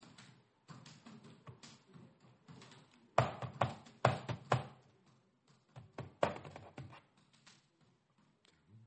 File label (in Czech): hlaseni; rozhlas